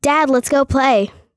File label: Kid